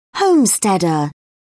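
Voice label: "homesteader", British English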